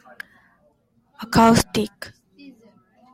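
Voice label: "acoustic", JPN, female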